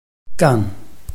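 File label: "gan", Chinese, tone 4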